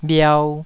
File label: "bhiau2", taiwanese